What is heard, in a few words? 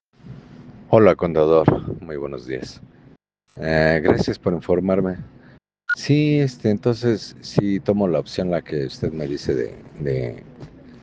whatsapp ptt